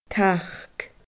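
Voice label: "tac tachd", Scottish Gaelic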